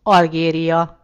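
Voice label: "algeria", Hungarian; gcompris; geography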